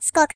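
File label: Jump, British; hedgewars; Default uk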